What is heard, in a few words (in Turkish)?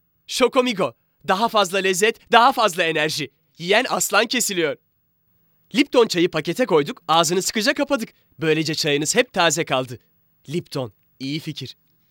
GENC ERKEK